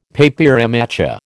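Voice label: "papier mâché", American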